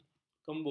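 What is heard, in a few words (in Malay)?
"kembu", Malay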